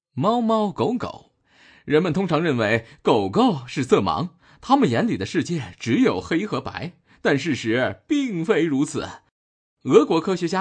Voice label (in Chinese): 男 活力搞笑; 飞碟说